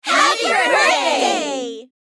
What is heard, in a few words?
Female crowd happy birthday; celebration